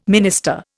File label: "minister", Indian